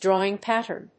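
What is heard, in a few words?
"drawing pattern", English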